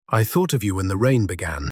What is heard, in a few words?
voice sample, Easy going and perfect for casual conversations.; Roger; Shot Studio